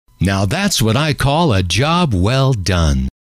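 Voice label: now that's what I call a job well done, male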